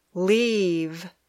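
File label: "leave", English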